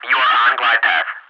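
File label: you are on glide path, English ATC Messages; DCS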